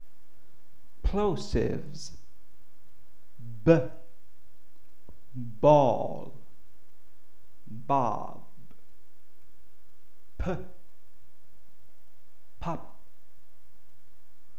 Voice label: plosives; ELiX